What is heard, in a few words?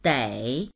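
"dei3", Mandarin Chinese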